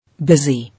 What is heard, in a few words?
"Busy", English